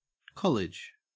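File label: "college", Australian English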